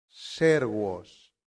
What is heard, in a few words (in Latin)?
"servos", Latin; santillana